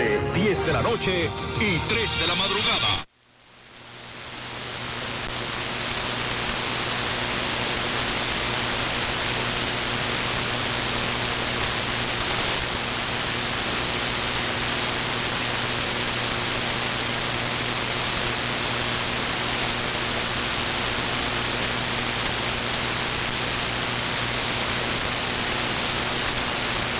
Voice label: Radio Marti abruptly off 9565.00 am